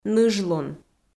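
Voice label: "ныжлун", Komi, female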